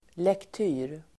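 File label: "lektyr", Swedish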